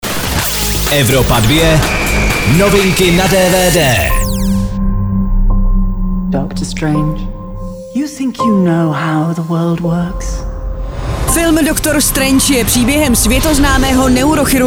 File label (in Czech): dvd news; evropa2; doctor strange